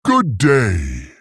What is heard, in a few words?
male tauren greetings